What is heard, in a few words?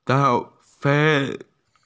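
"tou2fa5", Chinese, female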